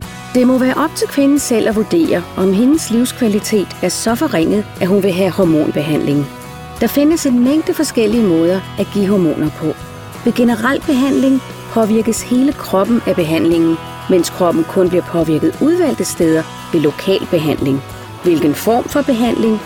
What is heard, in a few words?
Dansk Medical